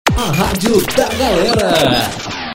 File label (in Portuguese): vinhetas, a rádio da galera